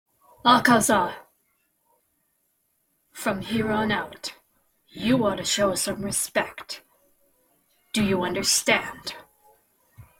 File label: impression; kokushibo